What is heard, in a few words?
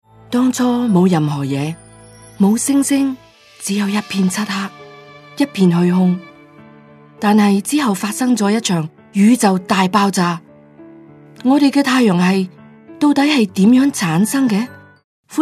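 Cantonese, narration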